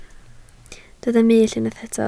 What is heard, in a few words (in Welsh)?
"mull", Welsh